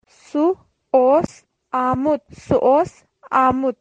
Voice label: Kashmiri; Had he come?; Su os aamut?